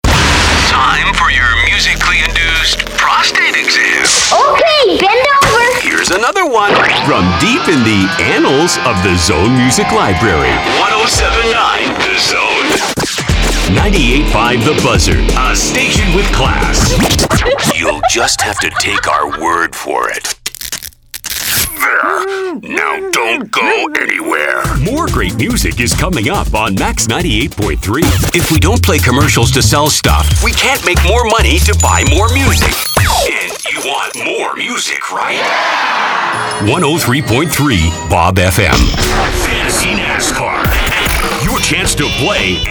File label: Imaging